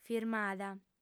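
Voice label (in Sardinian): "firmada", Sardinian, female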